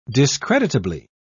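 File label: "discreditably", British English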